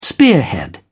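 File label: "spearhead", British English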